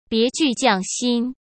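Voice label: "别具匠心" Mandarin Chinese; hsk; chinese-flashcards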